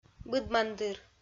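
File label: "быдмандыр", Komi-Zyrian, female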